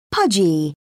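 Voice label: "pudgy", British English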